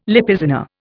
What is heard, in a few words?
"Lipizzaner", Indian